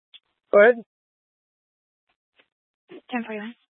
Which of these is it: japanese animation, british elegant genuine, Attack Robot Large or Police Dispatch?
Police Dispatch